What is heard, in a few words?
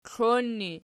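"klon" ergative, Archi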